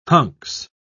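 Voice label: "hunks", English, British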